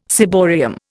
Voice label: "ciborium", Indian